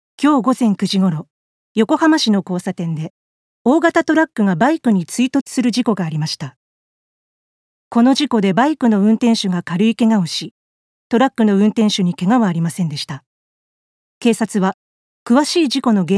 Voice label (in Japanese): ニュース